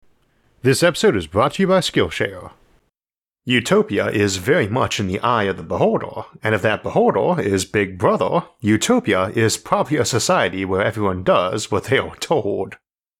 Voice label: machine overlords post discontent societies narration only; isaac arthur